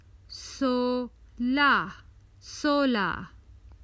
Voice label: Common Hindi Phrases for travel Sixteen